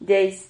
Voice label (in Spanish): "yeis", Silabas